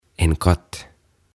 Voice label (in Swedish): "En Katt" "Kissa"